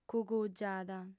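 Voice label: "cugugiada", female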